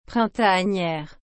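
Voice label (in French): "printanière", French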